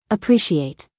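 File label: "appreciate", American English, female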